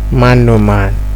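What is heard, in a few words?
"man know man", Nigerian Pidgin, male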